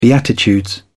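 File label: "beatitudes", British English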